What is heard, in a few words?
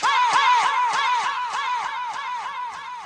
hey hey echo